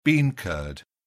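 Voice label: "bean curd", English, British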